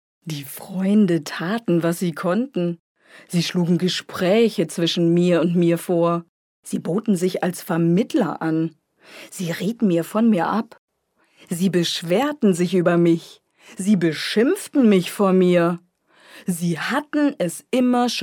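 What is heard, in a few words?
German, female; voice over talent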